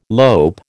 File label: "lope", American